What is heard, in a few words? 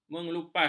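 "mengelupas", Malay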